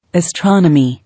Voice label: "Astronomy", English